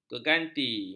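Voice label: "geganti", Malay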